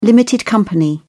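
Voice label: "limited company", British English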